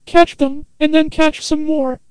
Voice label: Antenna Voice

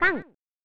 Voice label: "Kont!" (Female); pro mahjong kiwame; snes

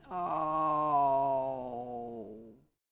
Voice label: Voices Female

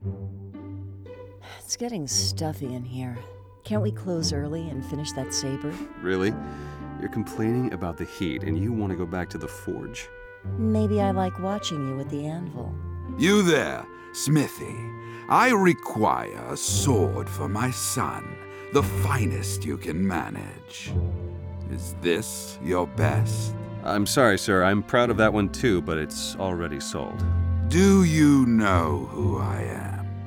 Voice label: Intro Male

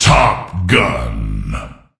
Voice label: Voices Announcer Reward

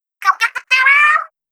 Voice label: Voice Excitement; Splatoon; Callie

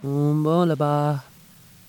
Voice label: Concerned; Octavia2

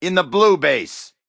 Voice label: Voices Character Male

